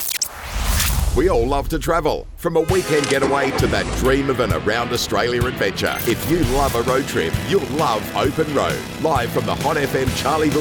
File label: Intro Male; Open Road